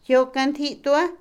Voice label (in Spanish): zapatero, Otomí, mujer; Ropa; INALI